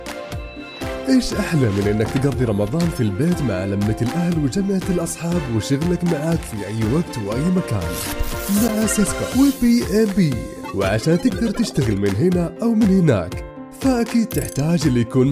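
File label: Arabic, general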